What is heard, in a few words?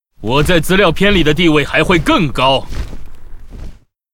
pissed